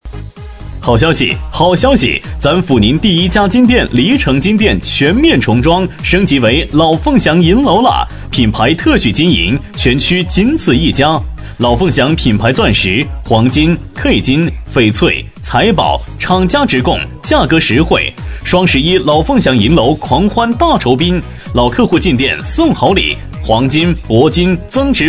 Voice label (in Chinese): 男声; 老凤祥银楼